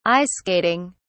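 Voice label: "ice skating", British English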